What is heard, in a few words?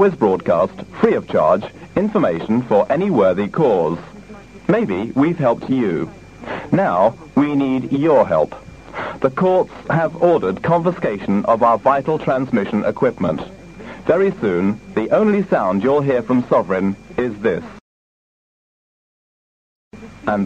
Radio 1494kHz London Announcement; Radio Sovereign